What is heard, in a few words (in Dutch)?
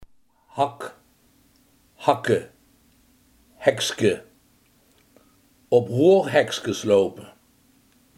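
hak, Limburgse gesproken woordenboeken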